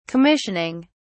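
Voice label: "commissioning", English, British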